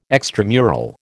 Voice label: "extramural", American